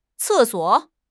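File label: "ce4 suo3", Chinese; HSK4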